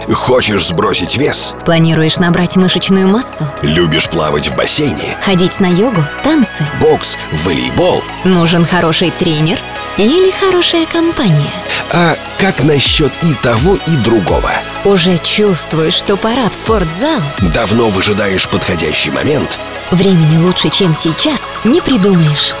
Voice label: sportlife radio, ru